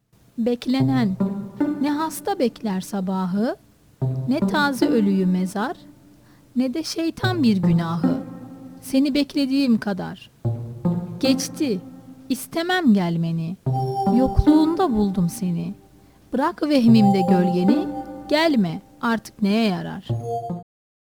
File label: poem